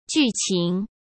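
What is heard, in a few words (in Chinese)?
"剧情", Mandarin Chinese; chinese-flashcards; hsk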